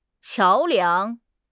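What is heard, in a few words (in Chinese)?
"桥梁", Chinese; HSK6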